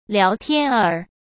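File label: "聊天儿", Mandarin Chinese; hsk; chinese-flashcards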